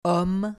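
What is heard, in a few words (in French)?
"homme", French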